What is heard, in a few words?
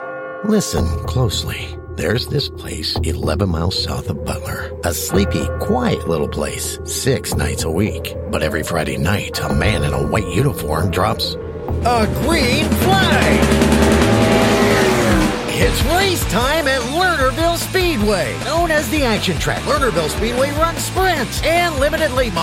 english american others